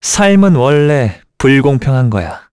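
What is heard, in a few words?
Vox Skill, Korean; Esker; kingsraid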